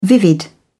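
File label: "vivid", British English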